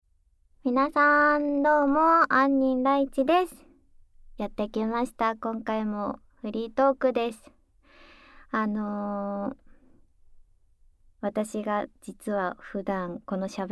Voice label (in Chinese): 自由谈话; 与低音阴郁奴隶精灵公主的商业性行为→堕落于爱情中的性生活